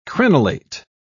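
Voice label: "crenellate", British English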